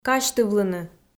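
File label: "казьтывлыны", Komi, female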